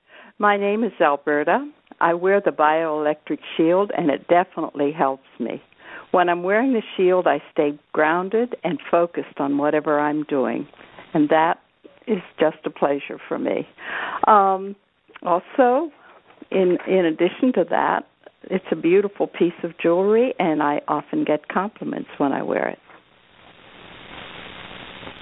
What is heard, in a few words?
Phone call